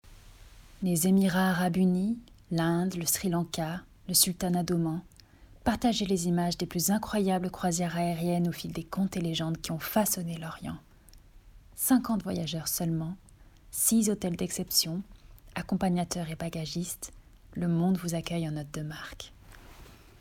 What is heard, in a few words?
French voice. Commercial